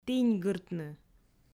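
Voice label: "тіньгыртны", Komi, female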